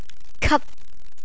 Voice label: "kat", Cantonese

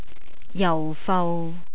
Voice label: "jaufau", Cantonese; entertainment

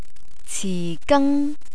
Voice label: "cigang", Cantonese; cooking

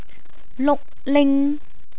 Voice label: "lukling", Cantonese; entertainment

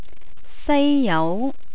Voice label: "saijau", Cantonese

